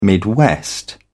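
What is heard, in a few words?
"midwest", British English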